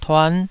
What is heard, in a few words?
"tuan5", taiwanese